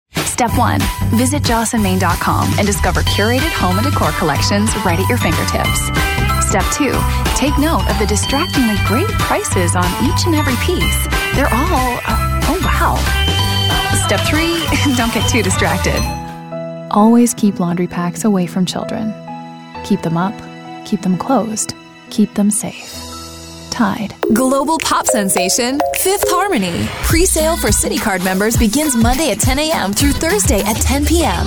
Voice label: english canadian commercial

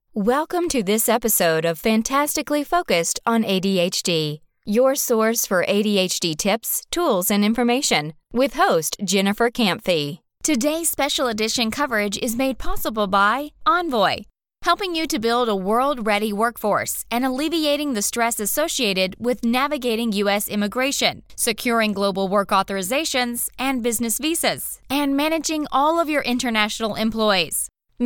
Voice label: english american podcasts

